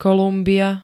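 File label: "Kolumbia", Slovak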